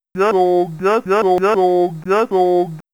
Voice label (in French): Voix dieu